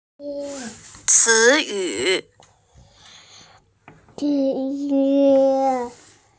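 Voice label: "ci yu", Mandarin Chinese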